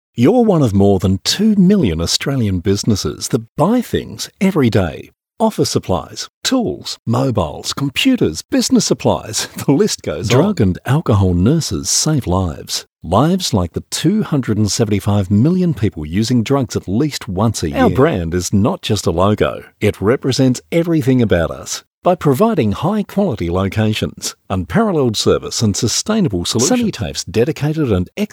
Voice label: natural